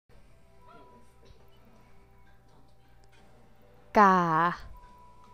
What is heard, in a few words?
Tone 2 is low tone female